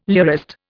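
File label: "lyrist", Indian English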